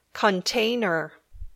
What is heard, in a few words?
"container", English